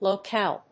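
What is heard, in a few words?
"locale", English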